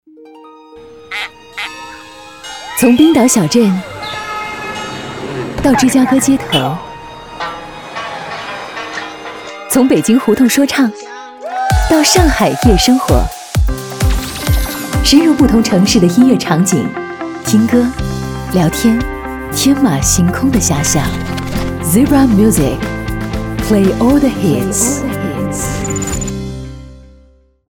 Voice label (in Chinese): 广告 片头片花 知性, 女国; 斑马音乐